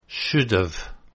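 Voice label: "should've", English contraction; Contractions Pronunciation Practice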